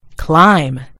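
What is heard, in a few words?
"climb", American English; gcompris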